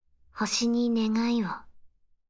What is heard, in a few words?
title call